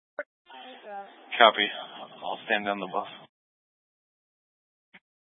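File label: Incident Tactical 3, Scanner audio recording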